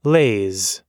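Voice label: "lays", US English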